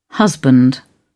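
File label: "husband", British English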